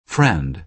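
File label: "friend", American English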